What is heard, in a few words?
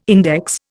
"index", Indian